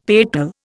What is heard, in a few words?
"petal", Indian; Dictionary